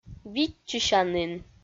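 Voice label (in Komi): "виччысянін", Komi, female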